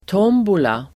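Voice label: "tombola", Swedish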